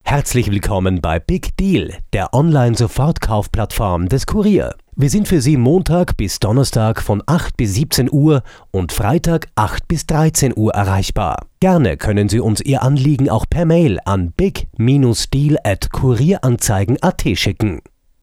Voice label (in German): Ansage; Big Deal